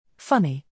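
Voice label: "funny", English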